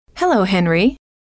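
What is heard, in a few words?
hello, female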